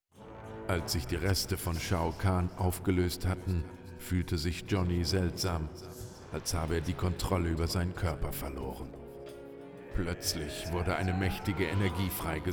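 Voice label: music voice over endings German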